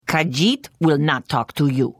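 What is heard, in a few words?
Service, Khajiit female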